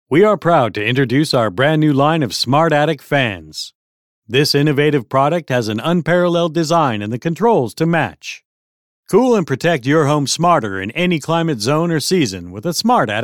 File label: Commercial